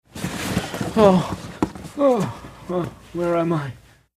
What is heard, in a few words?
Male Faints Where Am I